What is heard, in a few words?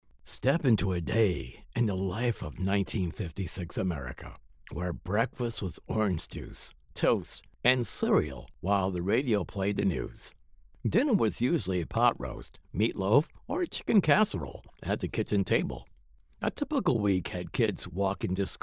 Narration; the year of 1956